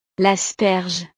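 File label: "l asperge", French; verduras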